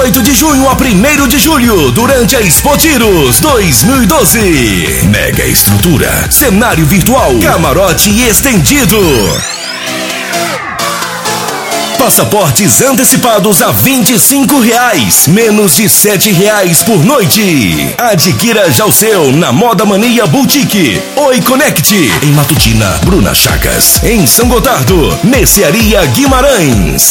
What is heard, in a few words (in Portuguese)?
voz boate